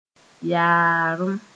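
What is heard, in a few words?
"yaarem", Mooré; big salt crystals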